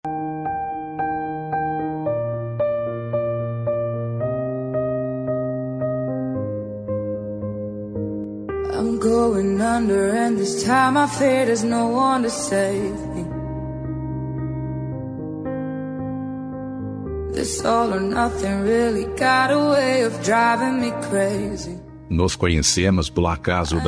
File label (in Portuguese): aniv fem virtual mas, Voz Masculina; Aniversário Virtual Distante – Voz Masculina